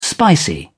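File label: "spicy", British English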